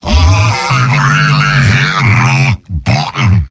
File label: jeers; demoman; mvm